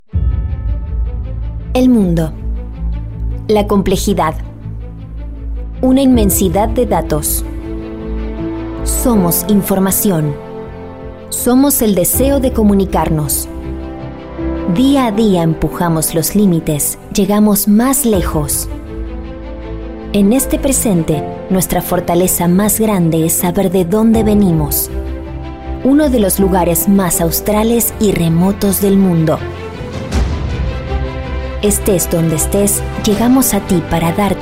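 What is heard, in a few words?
female, Argentinian Spanish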